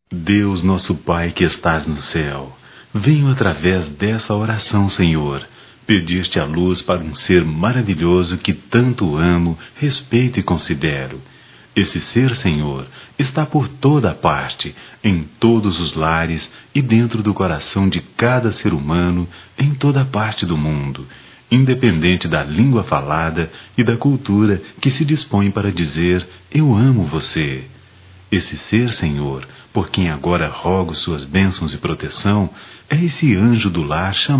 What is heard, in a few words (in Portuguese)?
Mãe adotiva, masc; Telemensagens 2017; Dia das Maes